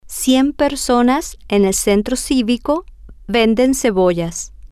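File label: cien personas en el centro, Spanish, female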